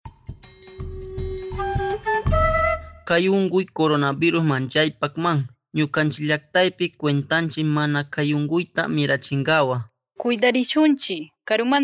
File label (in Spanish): kichwa del pataza 2 voces, spot de radio; entrega de bienes y alimentos; medidas de prevencion